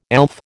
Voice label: "elf", American